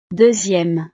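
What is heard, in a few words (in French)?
"deuxieme", French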